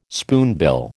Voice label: "spoonbill", American